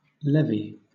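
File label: "levy", British English; pronunciations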